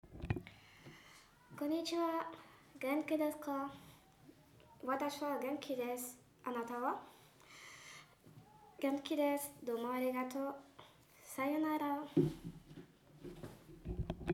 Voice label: Japonais

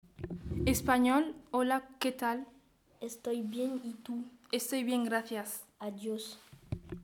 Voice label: Espagnol

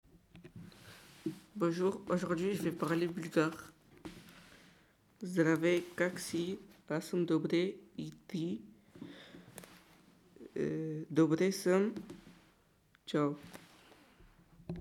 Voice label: Bulgare

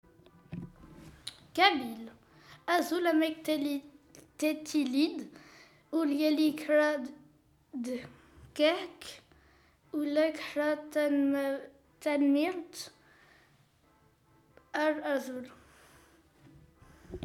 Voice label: Kabyle

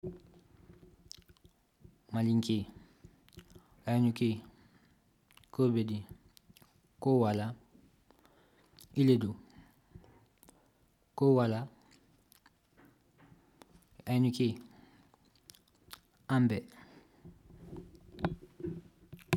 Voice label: Malinke

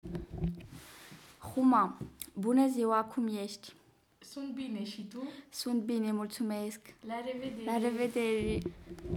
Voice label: Roumain

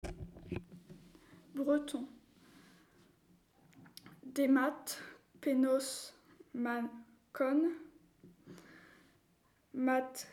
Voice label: Breton

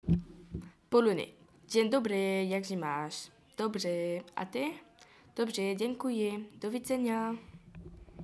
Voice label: Polonais

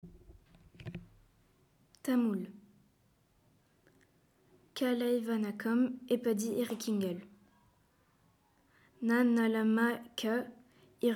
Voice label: Tamoul

